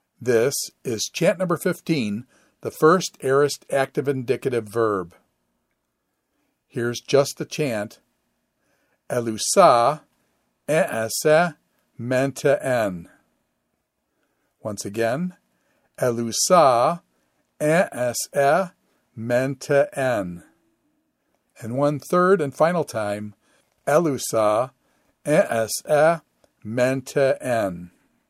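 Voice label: Quick Chant First Aorist Active Indicative luw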